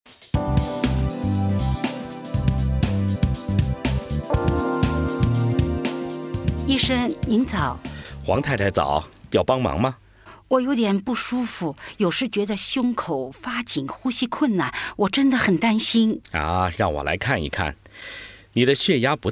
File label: Mandarin Script; heart